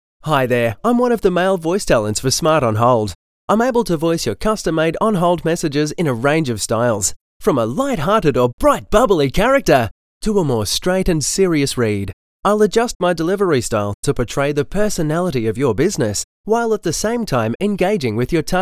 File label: male